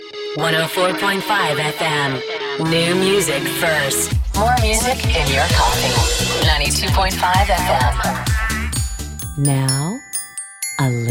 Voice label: Imaging